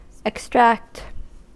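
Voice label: "extract", US English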